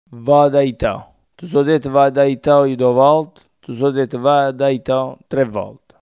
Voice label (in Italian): aiutare, coratino